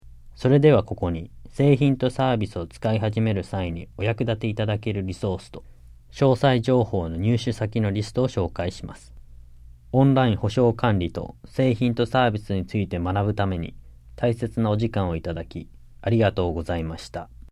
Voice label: JAPANESE; delltranslations